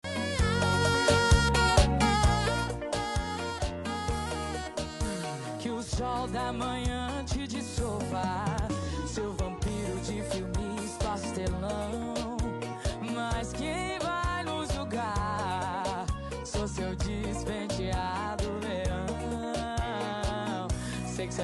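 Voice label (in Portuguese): romântica inicio namoro feminina, Telemensagem Romântica- Voz Feminina – Suave; rom inicio namora fem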